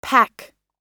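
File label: "pack", American English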